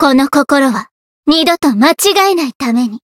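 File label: Voice chant, Japanese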